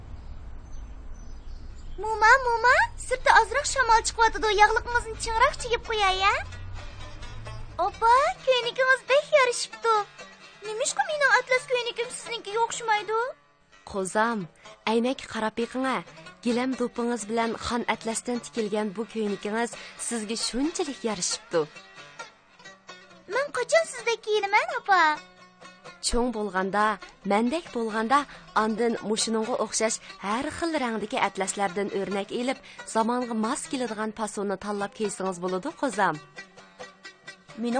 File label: uyghur clothing commercial